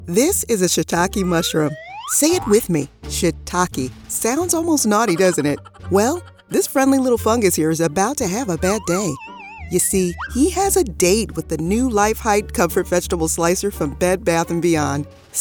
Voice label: explainer

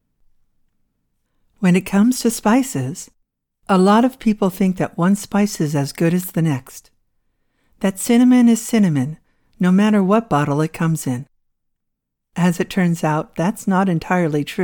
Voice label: explainer; Spice Islands

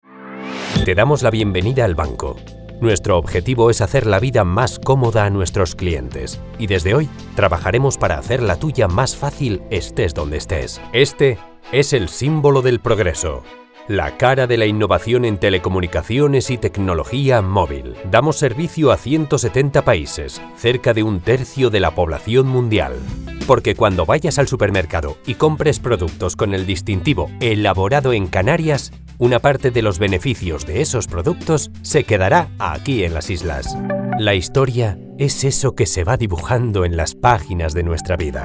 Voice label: CASTILIAN SPANISH CORPORATE MALE

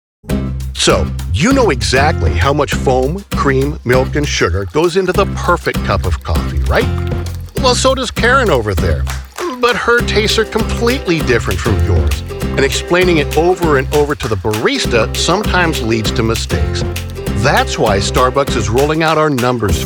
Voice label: Explainer

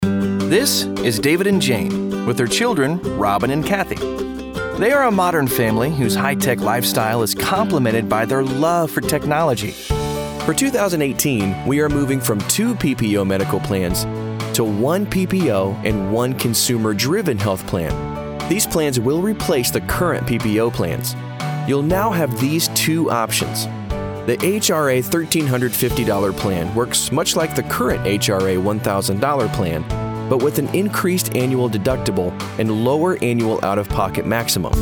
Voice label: eLearning

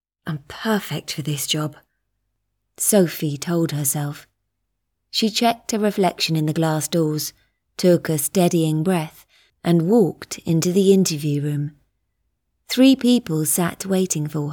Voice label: Comedy Audiobook

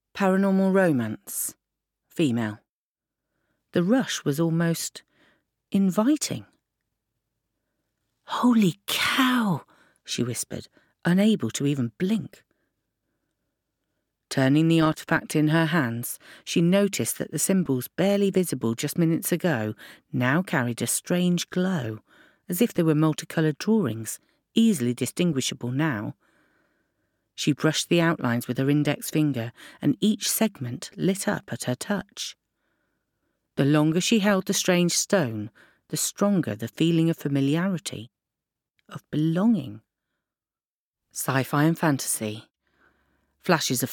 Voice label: Audiobook Reel PNR SciFi Thriller

